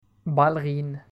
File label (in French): "ballerine", French